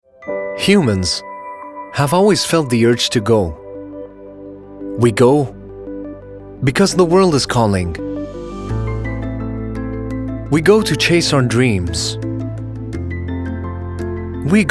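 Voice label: English US Narration Voice Overs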